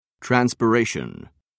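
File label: "transpiration", British English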